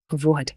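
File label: Voice Acting es, female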